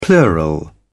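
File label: "plural", British English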